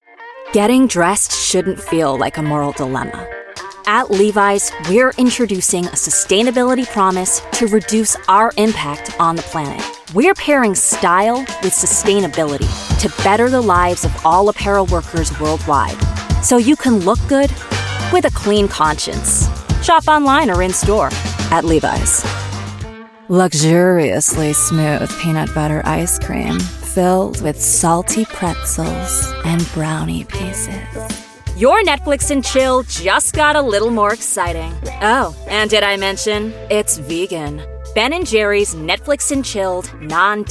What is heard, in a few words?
Commercial